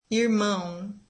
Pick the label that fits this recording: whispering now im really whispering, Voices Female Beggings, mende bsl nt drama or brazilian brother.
brazilian brother